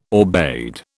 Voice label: "aubade", British